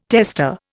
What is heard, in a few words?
"testa", Indian